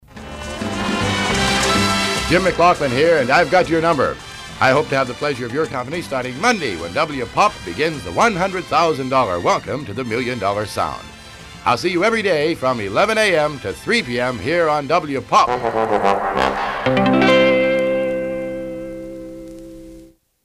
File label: promo